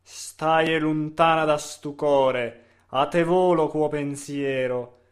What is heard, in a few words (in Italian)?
O surdato nnamurato espressivo; Califano